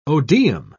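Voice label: "odeum", English, British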